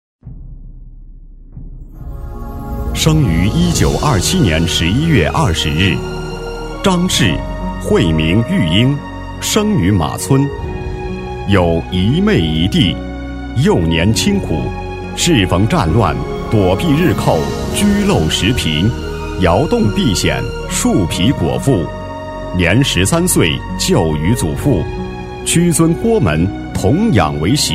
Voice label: 男 专题 人物介绍

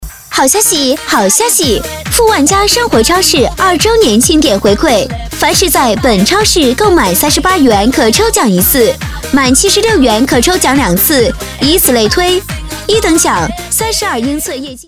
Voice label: 女-促销-超市促销

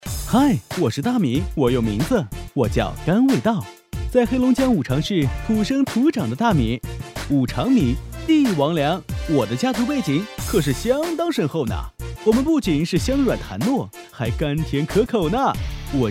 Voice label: 男 欢快; 五常米

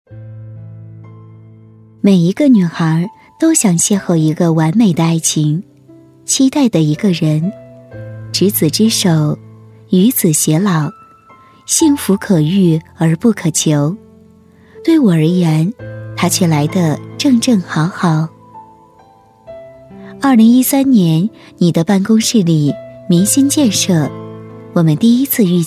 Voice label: 女 旁白 沙画配音

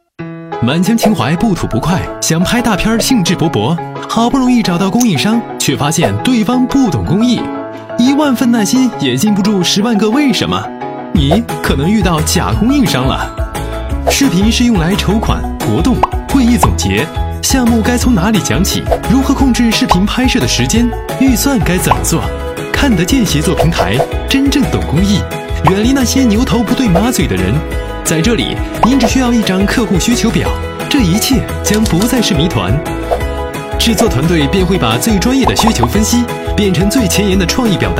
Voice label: 男93-飞碟说; 公益; 看得见公益

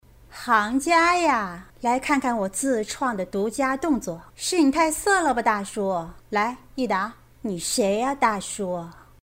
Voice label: 女25-模仿, 女; 白百合

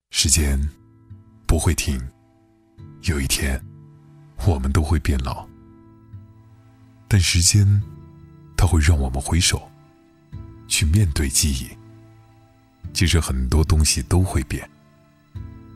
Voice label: 男 专题 温情回忆; 食品; 华誉食品

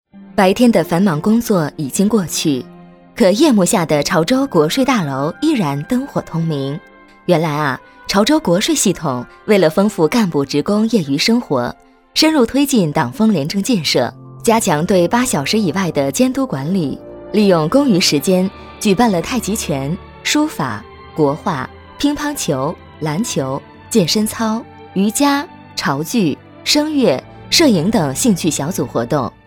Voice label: 女9-专题-国税, 女; 潮州

